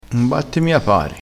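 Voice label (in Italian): "batte", dialettale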